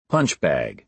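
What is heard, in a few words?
"punchbag", American English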